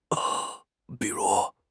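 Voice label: "bi3 ru2", Mandarin Chinese; HSK4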